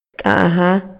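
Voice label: Maya, male; INALI; MayaYuc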